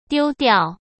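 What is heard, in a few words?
"丢掉", Mandarin Chinese; chinese flashcards; hsk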